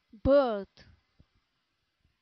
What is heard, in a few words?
"bird", English